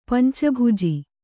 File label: "ಪಂಚಭುಜ", kannada